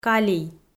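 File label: "калий" Komi, female; kpv audio dict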